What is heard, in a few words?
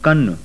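voiceless unaspirated velar, sindhi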